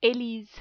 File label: "Elise", French